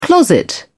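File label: "closet", British English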